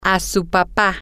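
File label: your father, spanish, female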